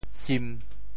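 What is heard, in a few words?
"sim1", Teochew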